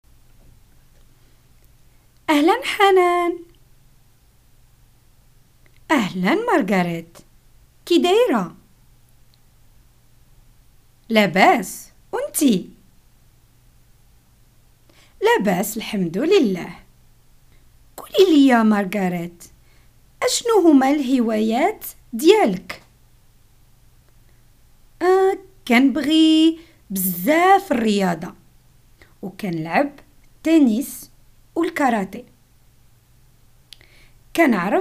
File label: dialogues, Moroccan Arabic; hobbies